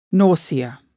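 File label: "nausea", English word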